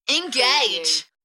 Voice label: UK female "Engage" Enthusiastic; Tech / Sci-fi; beanstalk audio

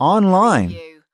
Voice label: "online", US male; lynne publishing

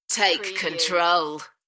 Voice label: UK female, Take control; beanstalk audio

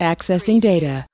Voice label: US female accessing-data; lynne publishing

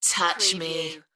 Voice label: UK female Touch me; beanstalk audio

